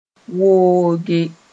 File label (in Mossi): "wooge", moore